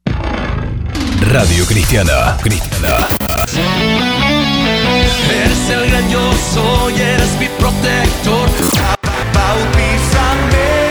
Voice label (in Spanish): PACK ARTE RADIOS CRISTIANAS